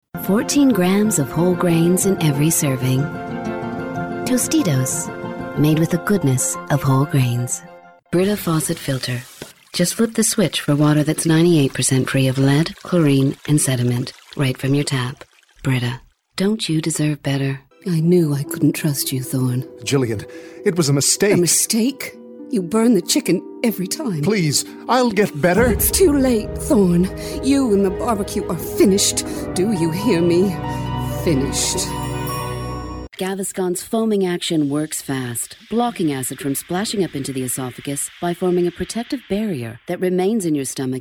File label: English American commercial